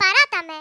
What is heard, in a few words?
leave me alone, Speech, Greek; Worms Armageddon